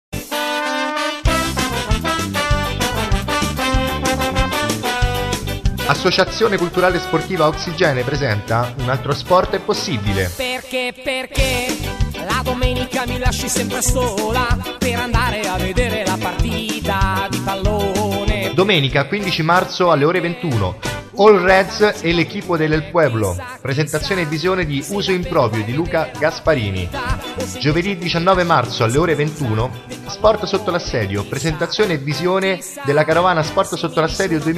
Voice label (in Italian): spot; altro sport possibile